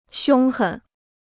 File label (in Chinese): "凶狠", Chinese